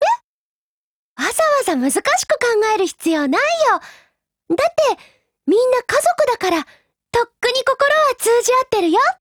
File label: DIALOGUE WEDDING JP; UMP9Mod